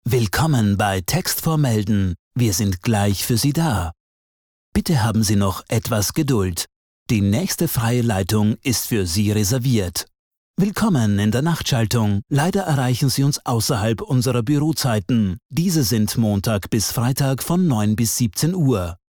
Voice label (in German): telefonansage